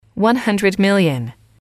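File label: "one hundred million", English, female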